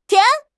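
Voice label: "tian2", Mandarin Chinese; HSK3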